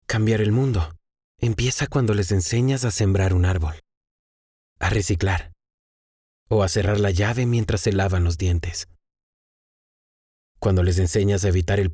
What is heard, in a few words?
spanish latin other male generic